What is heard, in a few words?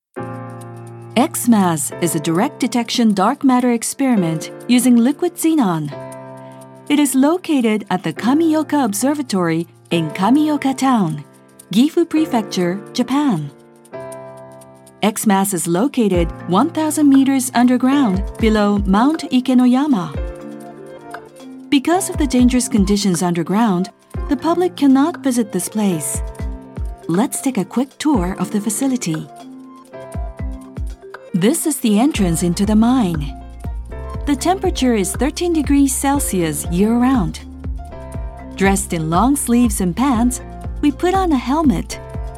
with music